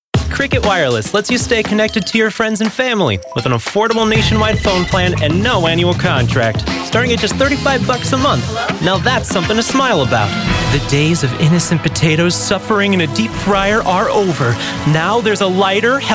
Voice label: English American commercial; Real, animado, divertido, amigável, energético, autêntico, conversacional; Inglês (dos Estados Unidos); Estados Unidos da América; Los Angeles, Califó